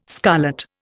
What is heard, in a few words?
"scarlet", Indian